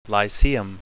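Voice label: "lyceum", English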